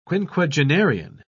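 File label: "quinquagenarian", English, uk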